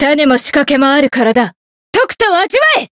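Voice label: Voice Japanese; hiina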